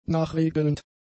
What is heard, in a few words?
"nachregelnd", German; adjectives